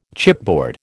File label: "chipboard", American